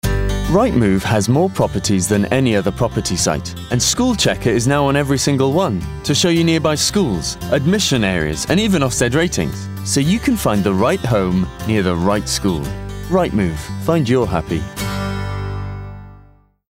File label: COMMERCIAL; RightMove